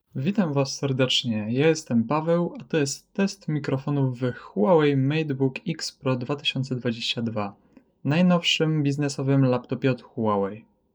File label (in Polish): Test mikrofonow z bliska; Huawei MateBook X Pro 2022